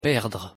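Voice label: "perdre", French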